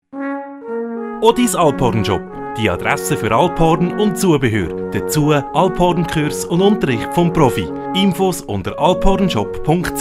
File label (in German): Werbespruch; Zentral